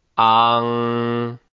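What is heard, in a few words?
"ang", Chinese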